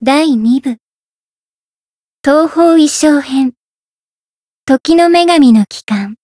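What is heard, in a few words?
Voice title, Japanese; radica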